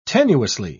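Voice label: "tenuously", British English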